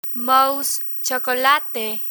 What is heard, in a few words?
mousee chocolate, Spanish; travel menu; learn spanish